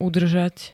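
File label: "udržať", Slovak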